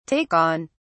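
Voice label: "take on", British English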